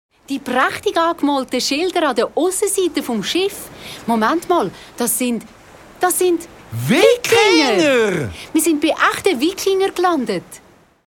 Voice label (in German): Trickfilm Dialog; Kaeptn Sharky